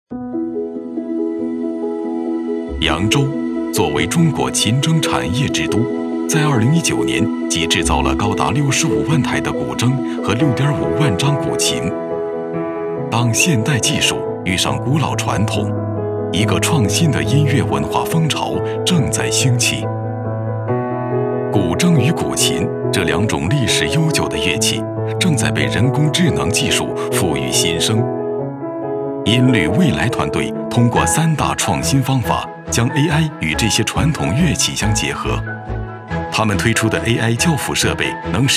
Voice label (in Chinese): 男,科技,专题片,博览会,展会,讲述,乐器,文化,传承,申遗